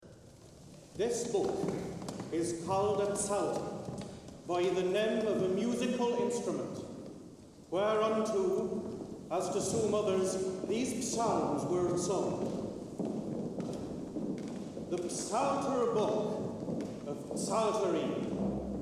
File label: Narration; The Psaltery